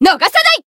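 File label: Voice finish, Japanese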